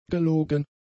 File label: "gelogen", German; adjectives